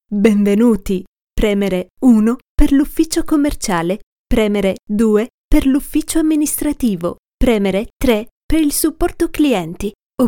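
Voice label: Italian, IVR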